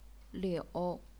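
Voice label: "liu", third tone, chinese pinyin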